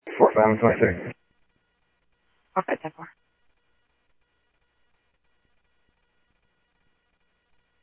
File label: Interop Mutual Aid, scanner audio